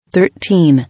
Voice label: "Thirteen", English

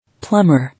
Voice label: "Plumber", English

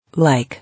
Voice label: "Like", English

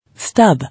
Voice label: "Stub", English

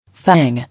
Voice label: "Fang", English

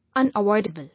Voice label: "Unavoidable", English

